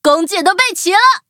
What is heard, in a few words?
loading, zh-hant; cookierun